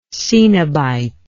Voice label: "cenobitism", English